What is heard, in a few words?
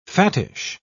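"fattish", British English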